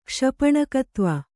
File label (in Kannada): "ಕ್ಷಪಣಕತ್ವ", kannada